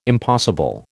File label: "impossible", American